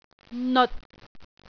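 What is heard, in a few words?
"neot6", Cantonese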